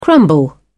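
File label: "crumble", British English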